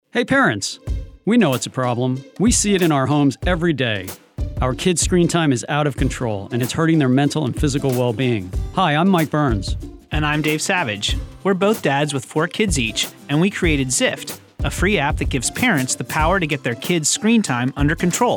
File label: Radio Spot; ZIFT XM